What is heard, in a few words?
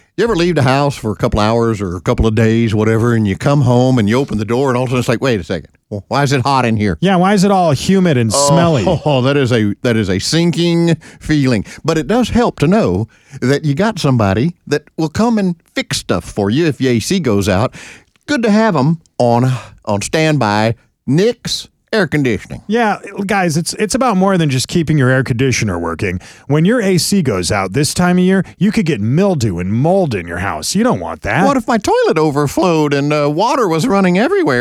RADIO AD; nicks plumbing radio ads